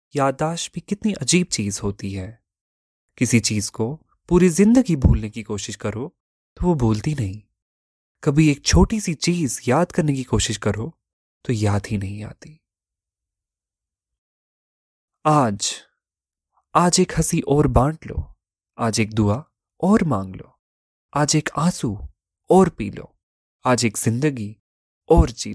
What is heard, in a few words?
Urdu Dialogues